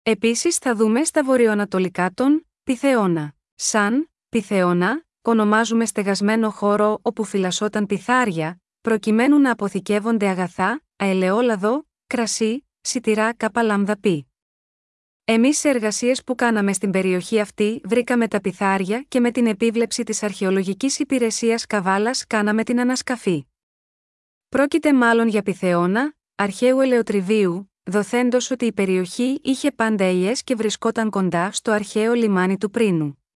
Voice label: greek, Ηχητική ξενάγηση; Πιθεώνας; Pitheonas